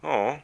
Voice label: guy sad; Classic Cribbage 1.0